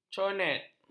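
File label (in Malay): "conet", Malay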